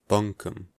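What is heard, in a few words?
"bunkum", US English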